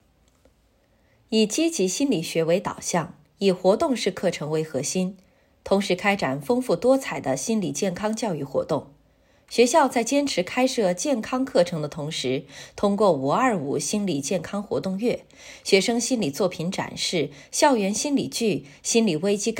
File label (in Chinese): 学校宣传